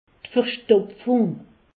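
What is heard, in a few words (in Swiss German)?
"verstoptfung", Alsatian; medecine; constipation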